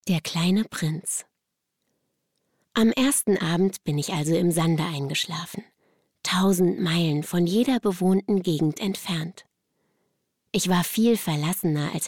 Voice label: erzaehlung

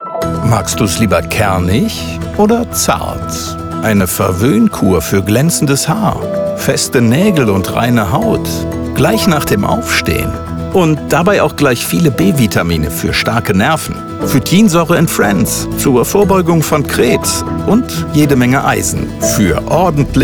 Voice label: Doku Werbe Mix

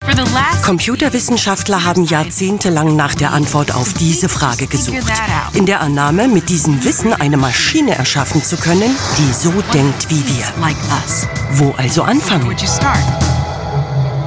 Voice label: Voiceover Kuenstliche Intelligenz

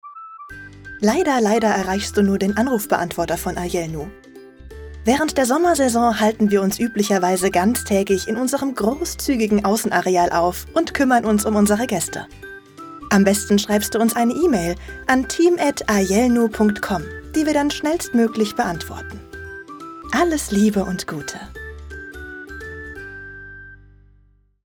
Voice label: Telefonansage Retreat

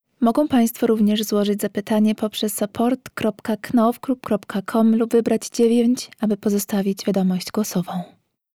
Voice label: Ansage; Knauf POL

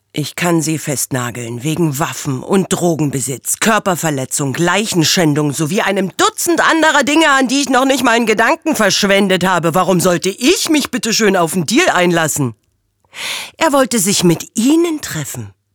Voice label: Rolle Polizistin

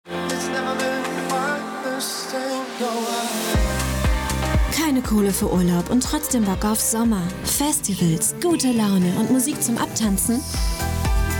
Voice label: off werbung dance spot; topsify; spotify

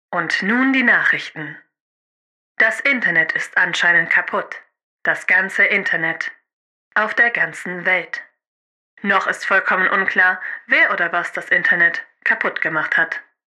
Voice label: Nachrichtensprecherin

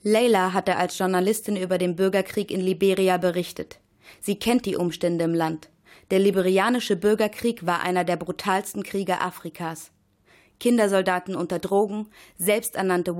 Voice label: Dokumentation Sprachprobe; LOFT VOICES

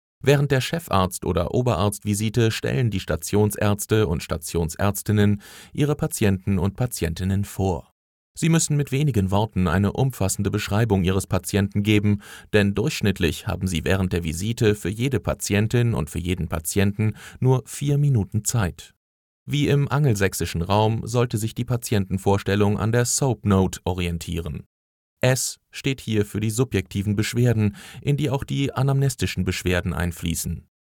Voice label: elearning klinikdeutsch

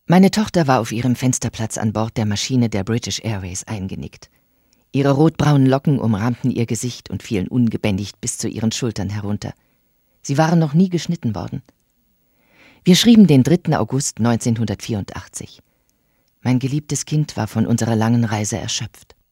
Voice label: erzaehlung

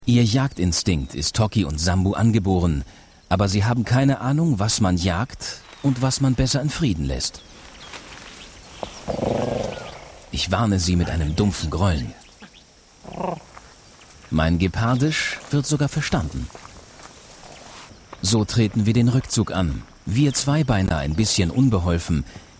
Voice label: kommentar

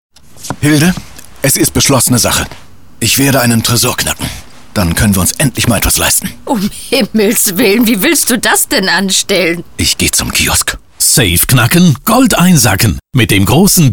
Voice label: funkwerbung; bild

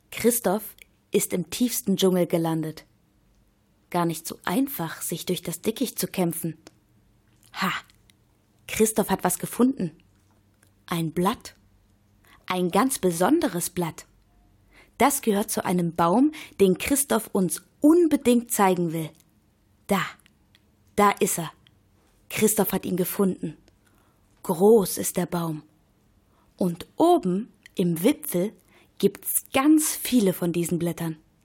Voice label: KINDER Sprachprobe; Sendung Mit Der Maus

